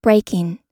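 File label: "breaking", American English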